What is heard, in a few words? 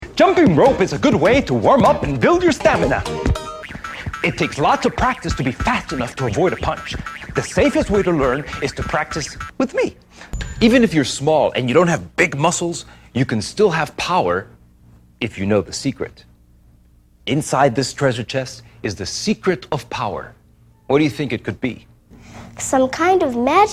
Children Instructional, voiceovers